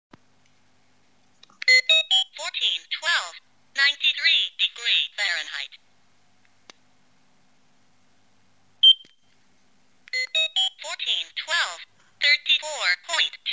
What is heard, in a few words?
talking keychain thermometer